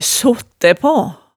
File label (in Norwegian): "sottæ paa", hemsedalsmaalet